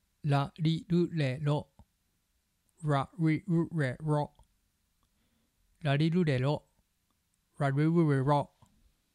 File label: rarirurero japanese english, pronunciation